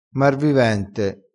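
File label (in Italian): "marvivente", dialettale crespinese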